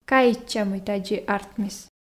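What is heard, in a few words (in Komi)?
каитча мый тадзи артмис, Komi, female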